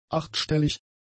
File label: "achtstellig", german; adjectives